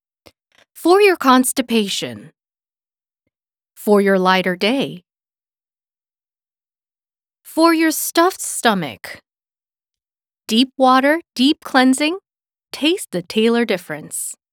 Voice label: 영어 차분한

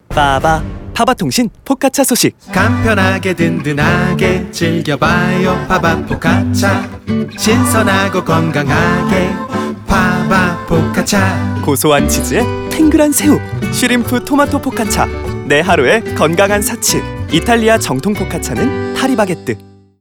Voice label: 남 광고 밝은 기분좋은 느낌; KBS; 파리바게뜨

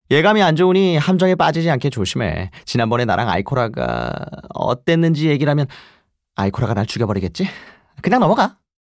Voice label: 게임 샘플 장난기있는 인조인간, 성우샘플

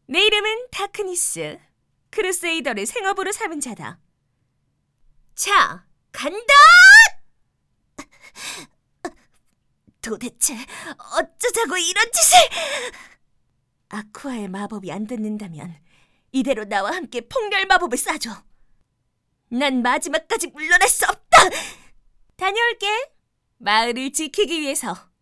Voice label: 20대 여전사 정의로운

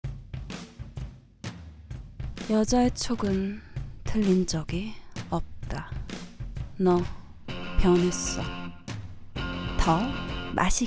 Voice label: 시크한 당당한 느낌있는

